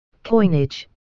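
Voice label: "coinage", English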